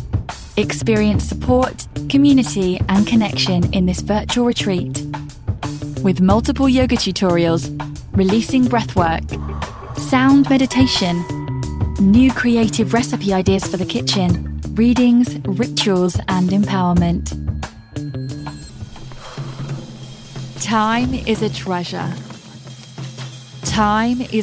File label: Fitness Wellness, british female voiceover